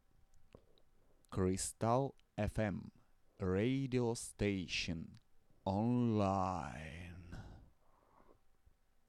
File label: RADIO STATION